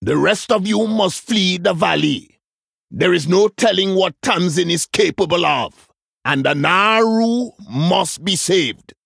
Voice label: Male Troll In Game Victory Pre Explosion